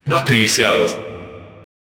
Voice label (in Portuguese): voz masculina grave e pot, voiceover